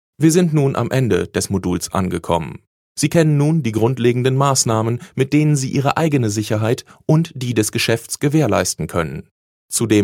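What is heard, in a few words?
German corporate narration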